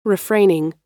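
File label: "refraining", American English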